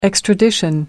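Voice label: "extradition", British English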